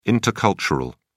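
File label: "intercultural", English, British English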